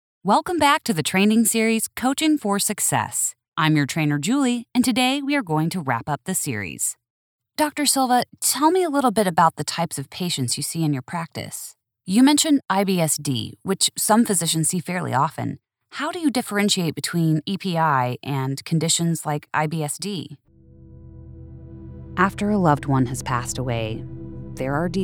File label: eLearning